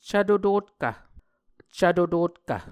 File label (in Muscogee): "cvto totkv", Muscogee